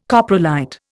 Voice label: "coprolite", Indian